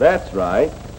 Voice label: that's right male